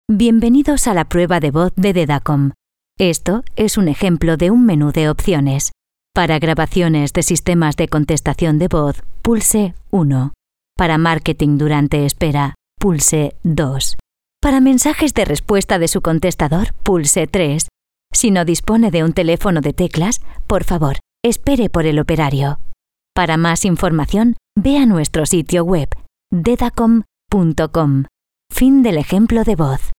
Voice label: Voice over Spaans Europees vrouw

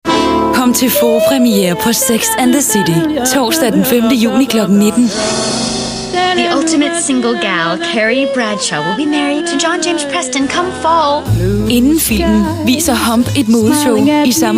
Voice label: Voice over vrouw

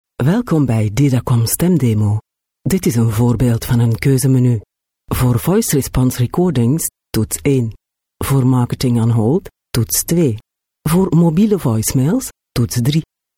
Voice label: Voice over Vlaams vrouw

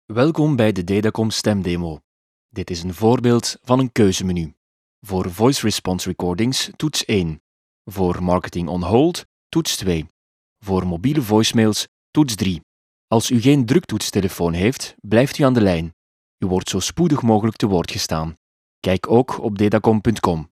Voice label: Voice over Vlaams man

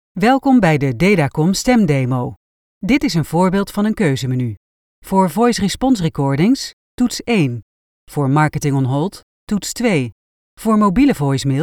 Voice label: Voice over Nederlandse vrouw

